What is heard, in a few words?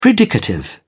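"predicative", English, British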